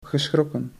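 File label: "geschrokken", Dutch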